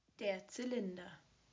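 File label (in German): "Zylinder", German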